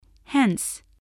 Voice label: "hence", English, spelling